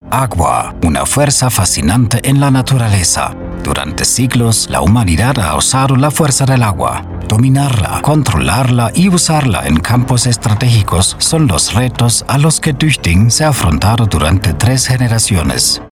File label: Spanish, European Male